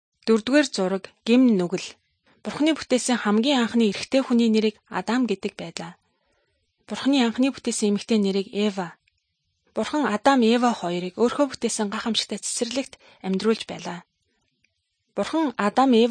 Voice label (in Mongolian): good news mongolian